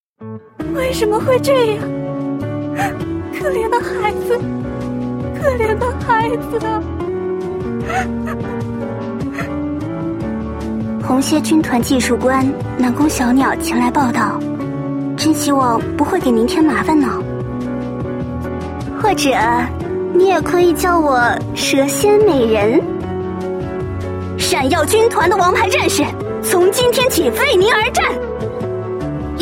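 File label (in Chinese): qingnian nü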